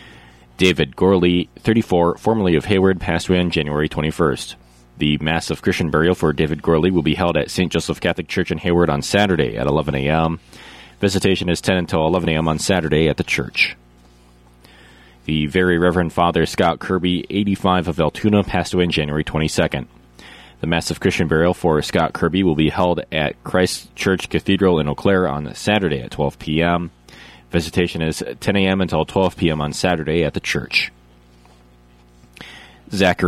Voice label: Funeral Announcements